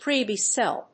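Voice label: "pre-B-cell", English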